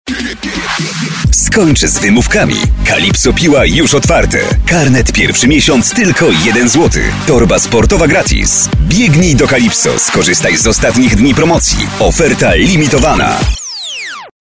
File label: spot